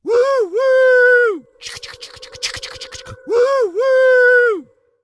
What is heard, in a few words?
Night Elf Male Choo Choo